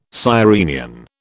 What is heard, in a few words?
"sirenian", British